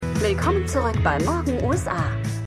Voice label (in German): TV Sprecherin; For All Mankind